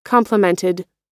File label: "complemented", us